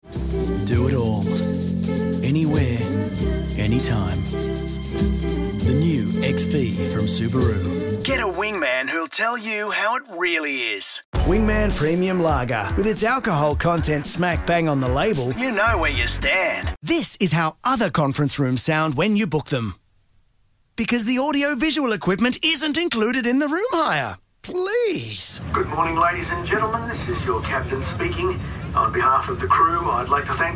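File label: Commercial Australian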